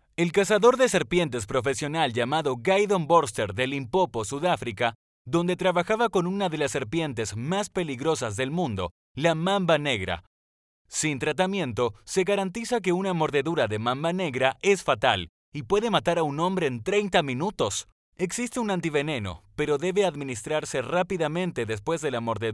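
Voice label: Argentine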